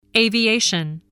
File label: "aviation", english